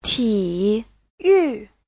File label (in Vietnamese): "体育", Hán ngữ; (danh từ) thể dục